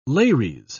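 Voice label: "lares", British English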